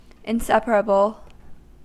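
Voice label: "inseparable", US English; pronunciations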